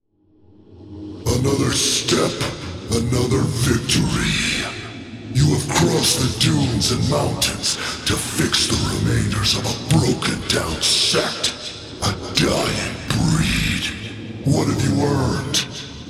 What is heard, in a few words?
VO Church Monologue; Rhythm of the Universe; Eolia; Salius Locrius